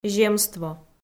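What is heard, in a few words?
"земство", Komi-Zyrian, female; audio dict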